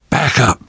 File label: backup quiet, voice; source engine; left 4 dead 2; namvet